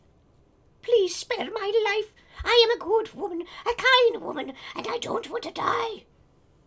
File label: Voices Female Beggings